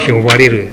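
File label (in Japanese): "yobareru", 南山形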